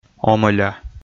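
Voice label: "омӧля", Komi, male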